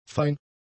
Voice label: "fein", german; adjectives

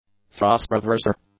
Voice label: "thrust reverser", english; nouns

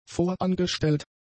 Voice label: "vorangestellt", German; adjectives

